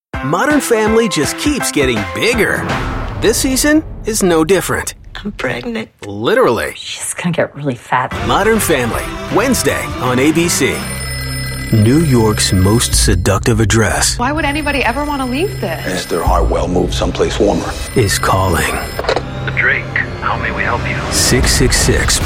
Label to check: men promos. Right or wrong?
Right